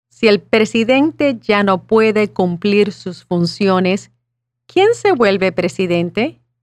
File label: Spanish